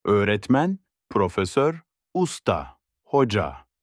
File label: professor in turkish